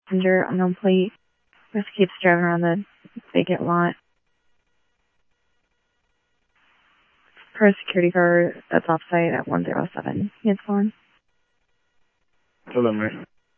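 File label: Police Department, scanner audio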